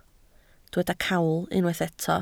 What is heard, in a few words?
"cawl", Welsh